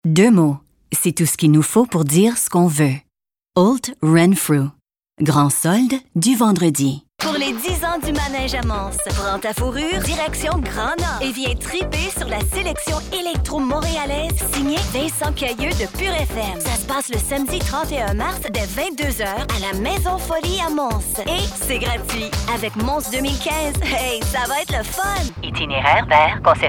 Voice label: French commercial